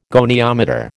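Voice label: "goniometer", American English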